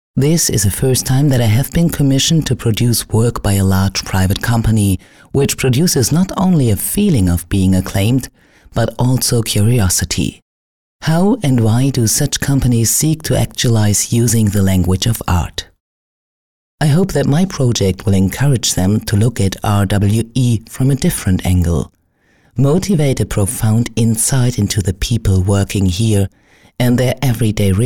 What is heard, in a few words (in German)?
Imagefilm; RWE